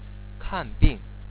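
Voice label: "kanbing", Chinese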